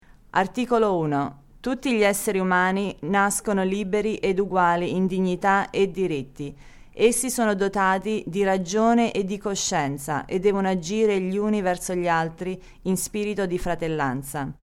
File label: Italian